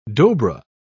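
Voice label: "dobra", British English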